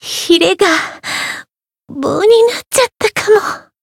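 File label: Voice win barely, Japanese; pizzica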